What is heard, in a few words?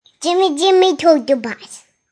Kid Says, Kid talking; Children; Human